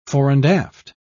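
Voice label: "fore-and-aft", en, uk British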